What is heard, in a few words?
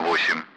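Speech Sound Russian Common Allied Flight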